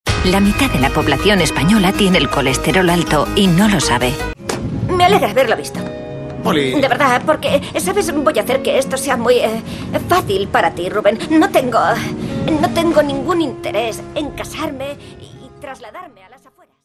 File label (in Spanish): Femenino